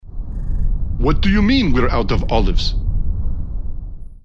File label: Pissed; Battlecruiser